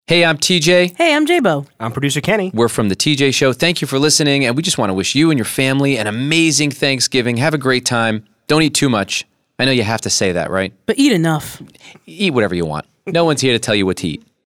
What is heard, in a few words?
THANKSGIVING PROMO